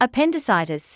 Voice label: "appendicitis", medical english